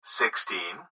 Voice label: Gps Voice, Male